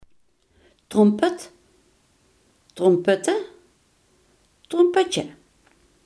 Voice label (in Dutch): "trompet", limburgse